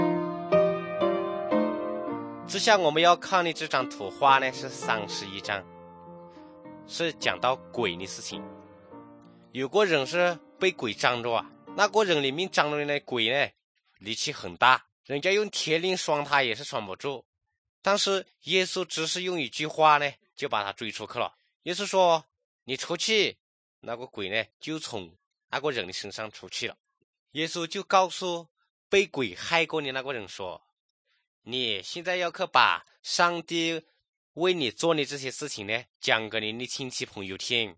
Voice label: good news shan, drama

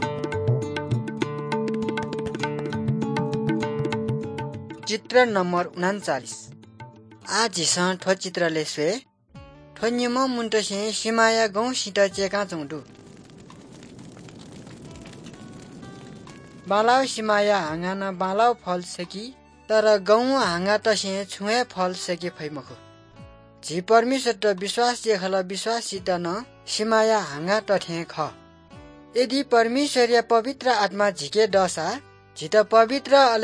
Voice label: good news newari balami

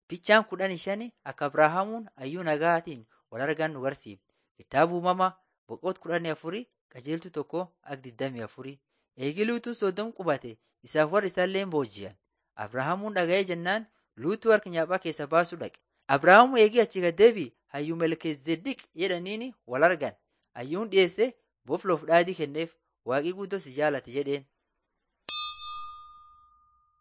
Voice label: borana sakuye

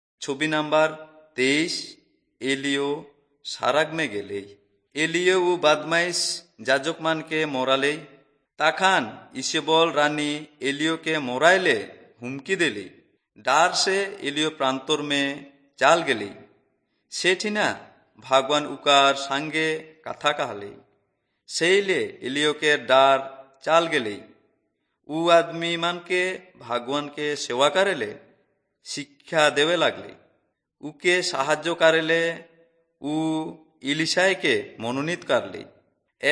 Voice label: servants of god urao, kurukh drama

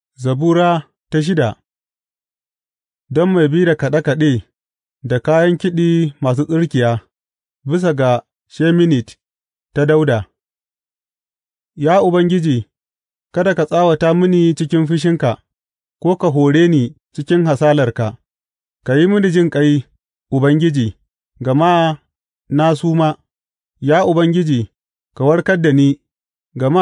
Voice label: hausa bible drama, psalms; hausa bsn nt drama

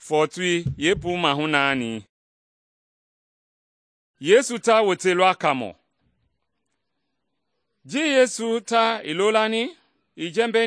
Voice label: mende bsl nt drama; mende kpa; good news; bible

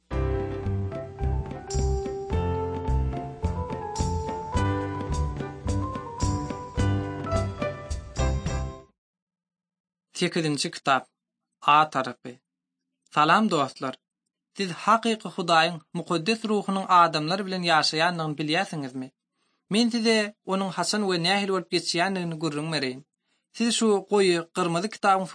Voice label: turkmen, bible translation drama

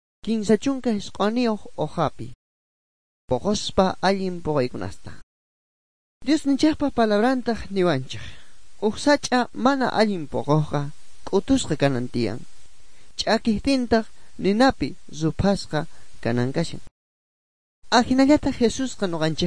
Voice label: good news quechua bolivian sucre; bible

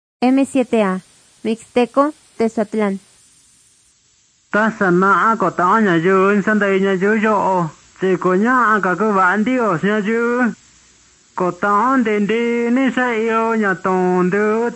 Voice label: mixtec diagnostic spanish mexico

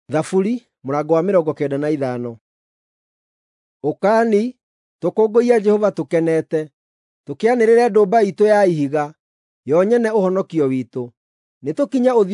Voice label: psalms, gikuyu drama

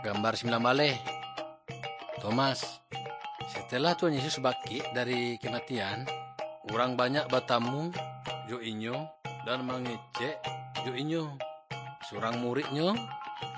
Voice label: good news minangkabau muko muko; kaba ilo

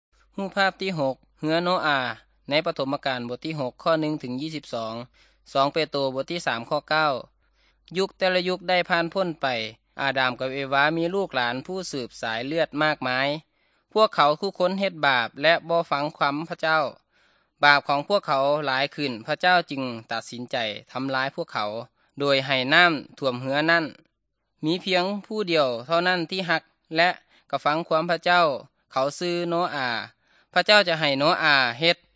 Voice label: good news thai northeastern

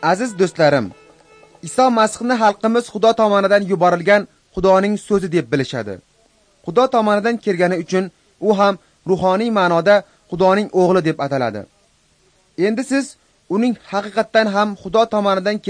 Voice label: uzbek northern

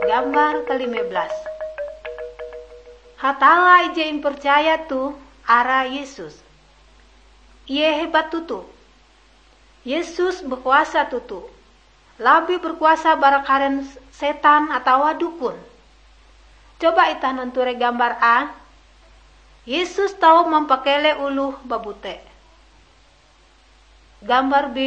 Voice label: kabar bahalap good news ngaju, drama

